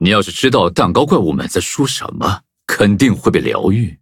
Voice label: loading zh-hant; cookie run

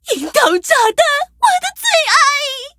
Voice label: levelup zh-hant; cookierun

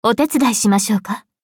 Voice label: level up, ja; cookie run

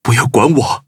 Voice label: loading, zh-hant

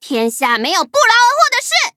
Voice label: loading, zh-hant; cookie run

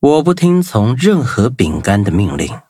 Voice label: upgrade, zh-hant; Cookie Run

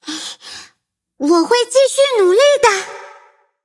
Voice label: levelup zh-hant; Cookie Run

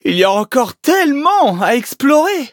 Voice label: defeat, fr; Cookie Run

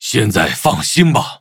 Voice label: level up, zh-hant; cookie run

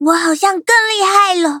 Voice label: levelup zh-hant; cookie run

